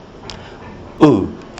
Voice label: u as in umu, kiwi english

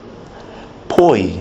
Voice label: "poi", kiwi english

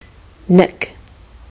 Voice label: "nick", kiwi english

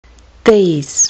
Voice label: "these", kiwi english

